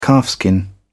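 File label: "calfskin", English, British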